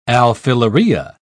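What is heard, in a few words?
"alfileria", British English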